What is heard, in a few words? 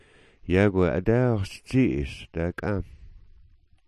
"adawx", smalgyax